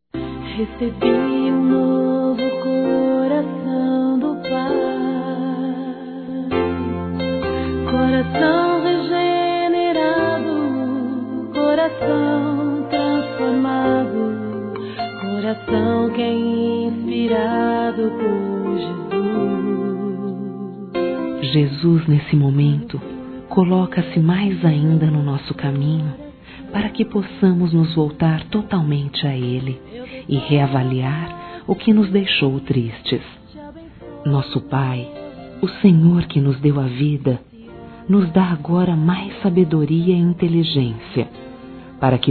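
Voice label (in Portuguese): Desculpas Evangélicas, Feminino; Telemensagens; Telemensagens 2017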